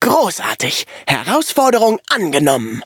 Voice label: battle, de; cookie run

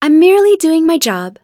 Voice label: levelup en; cookie run

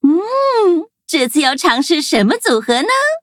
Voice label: touch, zh-hant

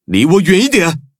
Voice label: levelup zh-hant; cookie run

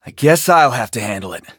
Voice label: eng match start; Ice Pop

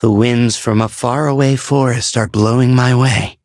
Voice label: touch, en; Cookie Run

